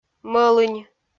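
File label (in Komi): "мӧлынь", Komi, female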